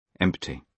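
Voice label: "empty", British English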